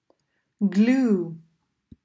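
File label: "Glue", English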